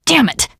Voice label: swear, teengirl; source engine; left 4 dead 2; survivor